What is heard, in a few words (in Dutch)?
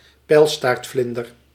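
"pijlstaartvlinder", Dutch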